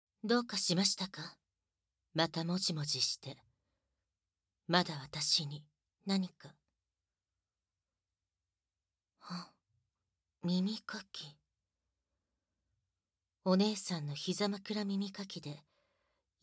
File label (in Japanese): 慰め耳かきASMR, 低音でダウナーでふわとろクールな”抱き枕お姉さん”; 癒しの生オホ抱き枕交尾